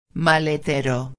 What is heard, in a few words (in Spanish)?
"maletero", espanhol; palavra do dia